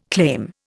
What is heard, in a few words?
"claim", Indian